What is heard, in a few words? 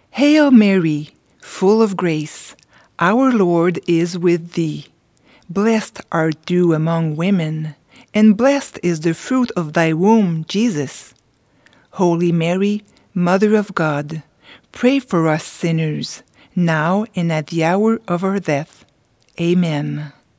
hail mary en